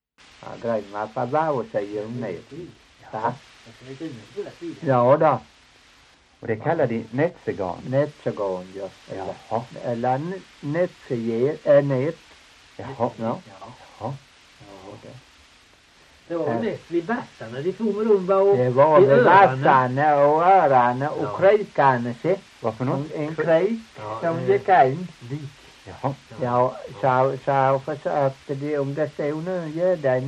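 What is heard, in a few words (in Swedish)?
Torhamn Blekinge, dialekter; Folkminnen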